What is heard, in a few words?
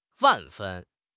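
"万分", Mandarin Chinese; HSK6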